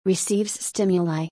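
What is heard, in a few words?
"Receives Stimuli", English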